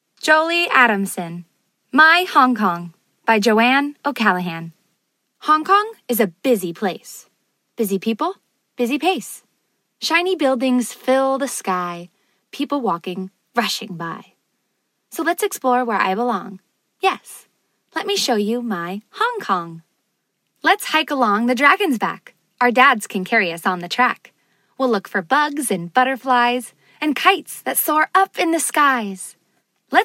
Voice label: Commercial

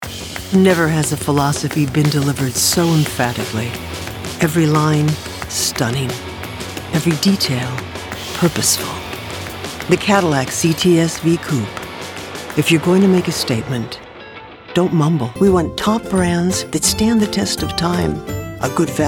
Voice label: Commercial

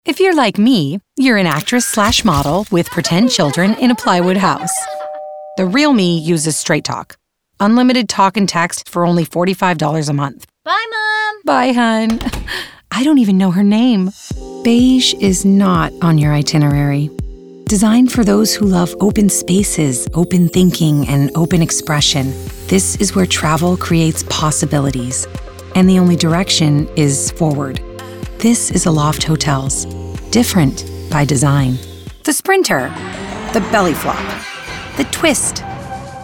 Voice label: Commercial

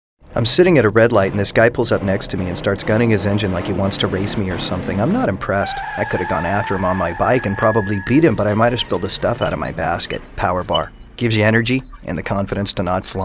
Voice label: commercial

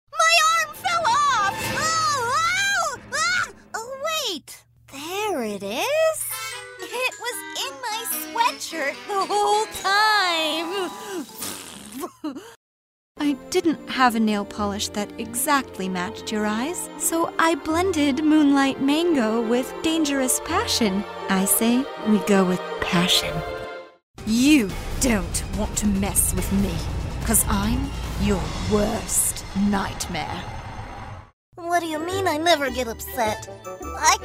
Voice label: Animation

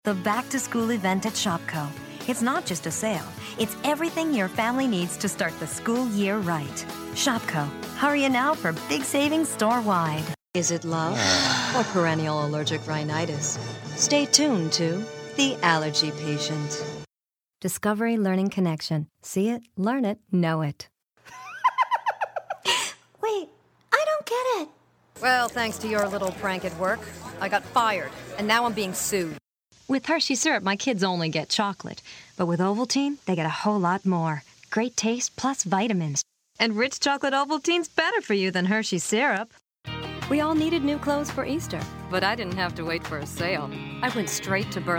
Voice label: Commercial

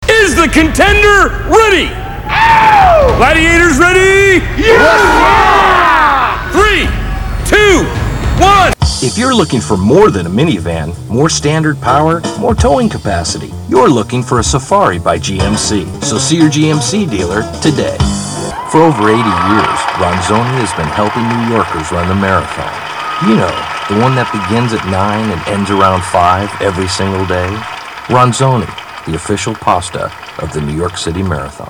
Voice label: commercial

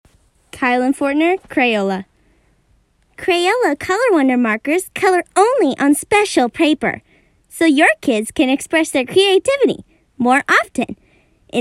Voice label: Youth

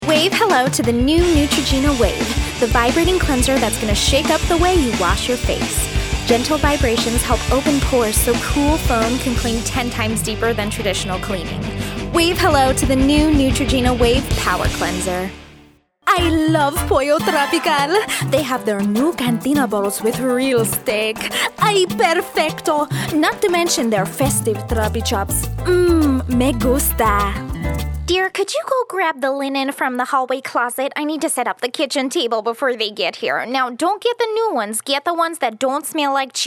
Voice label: commercial

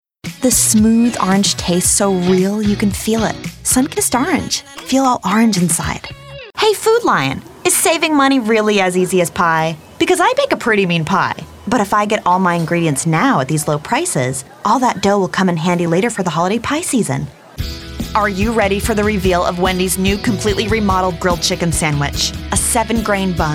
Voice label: Commercial

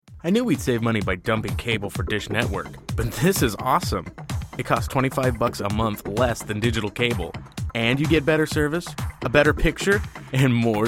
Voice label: commercial

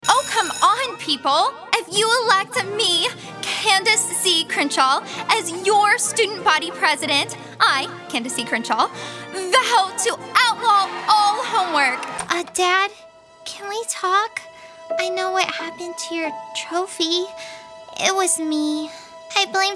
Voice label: Animation

